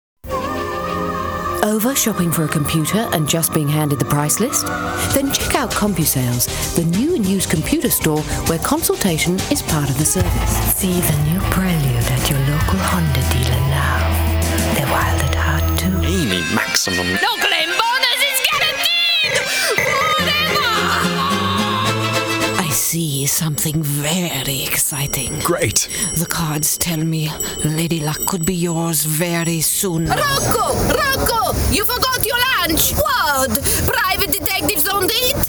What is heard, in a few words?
Voice-Overs